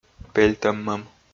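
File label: "пельтӧммӧм", Komi, male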